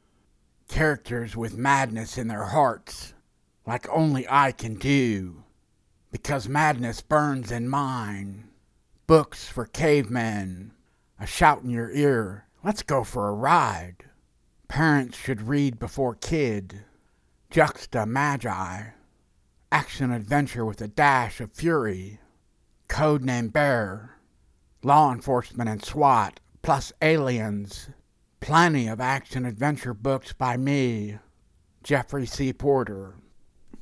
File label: COMMERCIAL